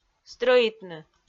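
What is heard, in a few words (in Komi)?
"стрӧитны", Komi, female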